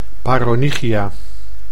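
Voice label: "paronychia", Dutch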